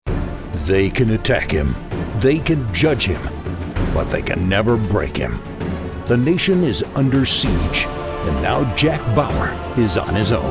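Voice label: Movie Trailer with music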